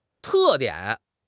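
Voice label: "te4 dian3", Chinese; 汉语口语新时代-初级下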